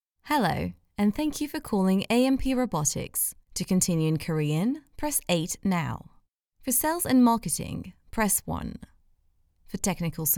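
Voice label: English IVR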